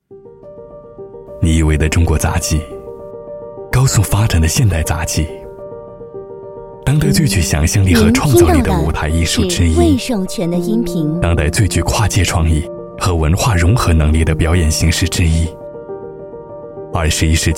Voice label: 男, 低沉, 温暖, 鼓舞人心; 杂技团招生

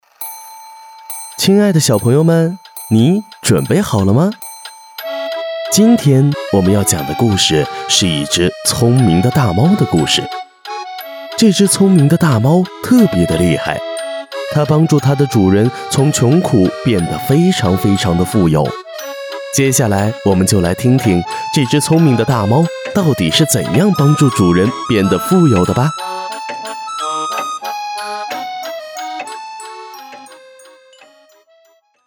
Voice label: 男 出版物 儿童读物

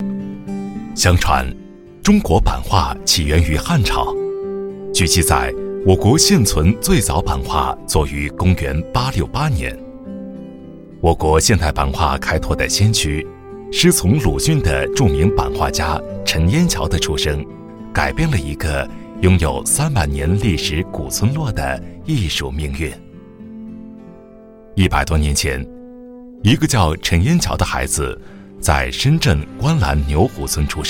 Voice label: 男 纪录片 成熟 轻松 讲解; 版画

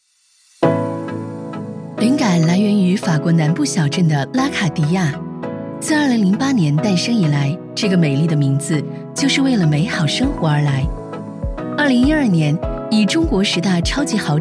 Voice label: 女 品牌宣传 高级 磁性 知性; 法国小镇LACADIERE

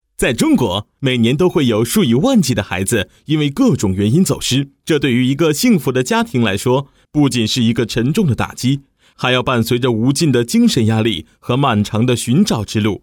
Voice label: 男 产品介绍 轻松活泼; 儿童智能

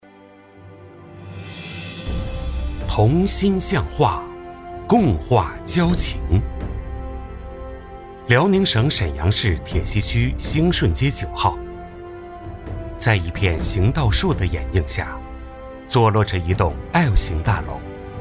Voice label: 男 专题 宣传; 橡胶

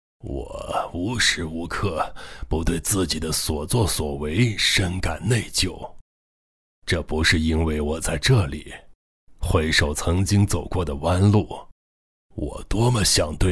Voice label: 男 模仿 老人

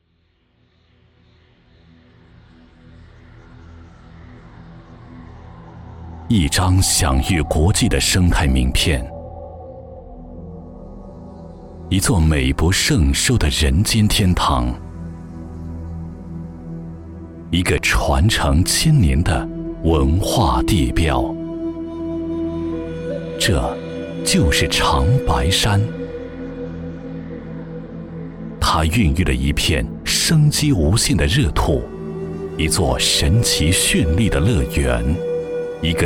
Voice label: 男 纪录片 沉稳 深沉 神秘 悠远; 长白山

